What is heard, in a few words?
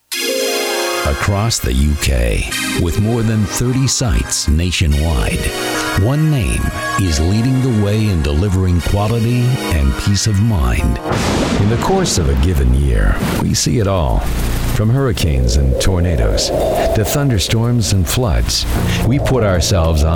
Narration